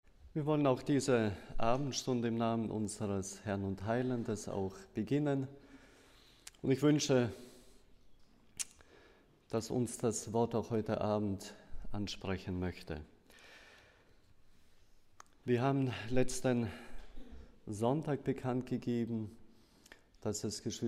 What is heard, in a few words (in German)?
Begruessung und Bekanntmachung